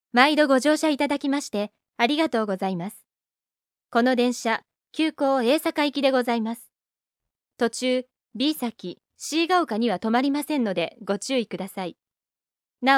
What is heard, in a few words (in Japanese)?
まずは普通に, 車内アナウンス的なもの